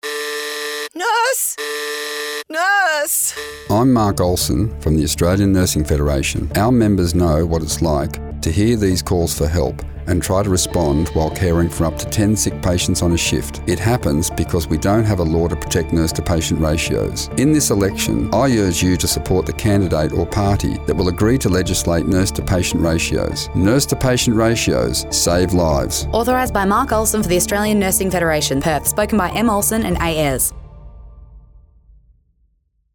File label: radio ad; state election